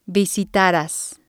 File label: "visitaras", Spanish